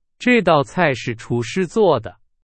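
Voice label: Chinese; hsk2; 是。。。的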